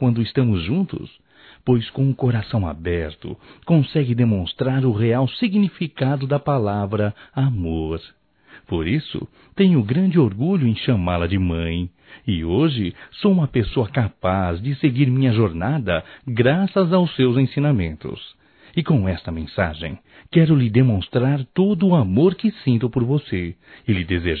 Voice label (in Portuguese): Dia das Mães Filho para Mãe Masculino; Telemensagens 2017